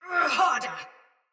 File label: Attack Heavy Soldier Mace Agent Female; Battle; Sinecure Melee